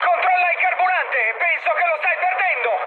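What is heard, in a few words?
Italian tank damaged mood high, speech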